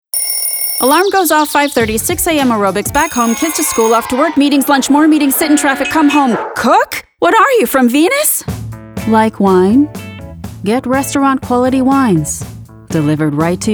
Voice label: Commercial Voice Over